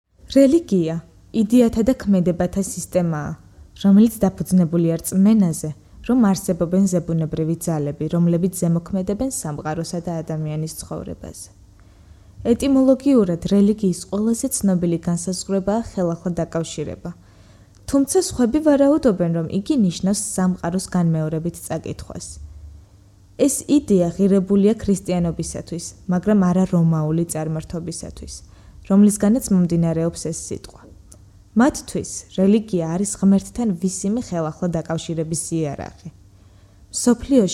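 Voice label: Georgian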